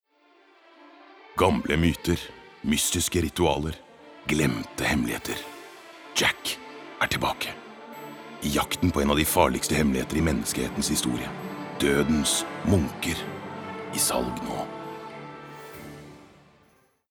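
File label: no movie trailer, Norwegian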